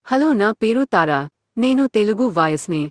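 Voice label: Telugu, India, female; iOS Sounds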